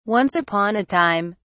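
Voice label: "once upon a time", English, British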